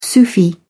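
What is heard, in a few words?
"sufi", British English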